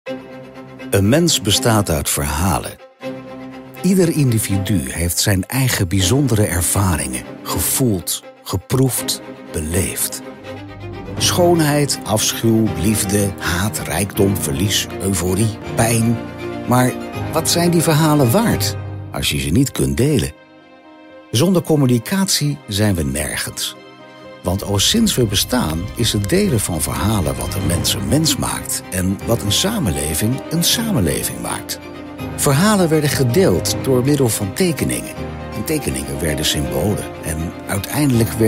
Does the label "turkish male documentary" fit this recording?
No